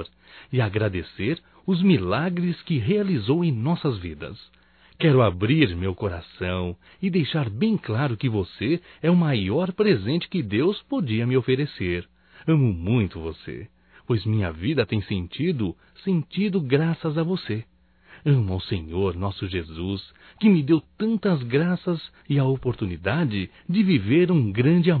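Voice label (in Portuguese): NATAL ROMANTICO (Masculino); Natal e ano novo; Telemensagens 2017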